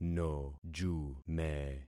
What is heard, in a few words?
"nojume", concat syllables; Affixing experiment